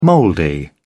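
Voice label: "mouldy", British English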